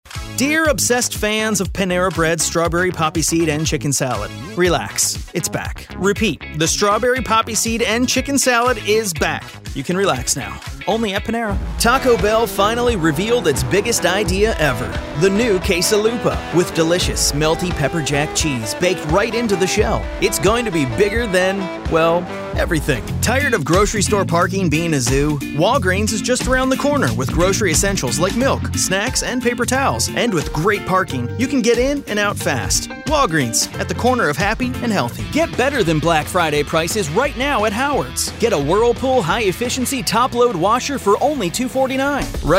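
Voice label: Commercial Retail voice over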